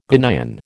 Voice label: "Ghanaian", American

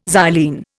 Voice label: "xylene", Indian

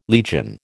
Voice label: "legion", American

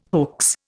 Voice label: "hoax", Indian

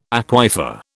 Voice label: "aquifer", British

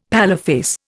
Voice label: "paleface", Indian; Dictionary

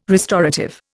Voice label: "restorative", Indian

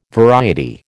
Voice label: "variety", American

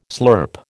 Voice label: "slurp", American

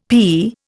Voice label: "pea", Indian; Dictionary

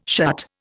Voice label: "shut", Indian

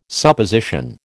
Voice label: "Supposition", American

